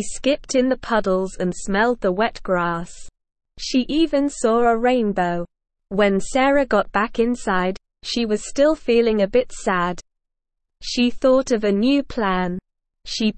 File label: ESL Short Stories for Kids SLOW reading The Cloudy Day